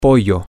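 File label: chicken, spanish, male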